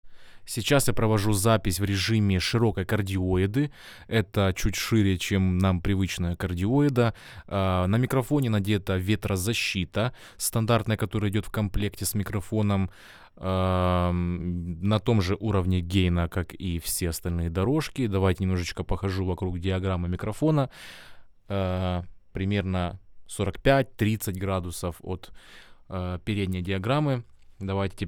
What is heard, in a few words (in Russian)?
lewitt lct 640 ts shirokaja kardioida; Lewitt LCT 640 TS — это конденсаторный, широкомембранный, микрофон за 1000$; Широкая кардиоида